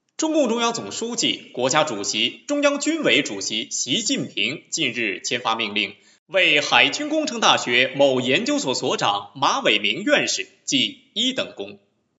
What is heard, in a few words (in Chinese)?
新闻